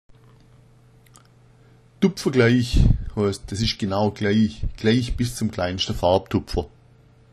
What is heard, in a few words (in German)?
dupfagleich, schwaebisch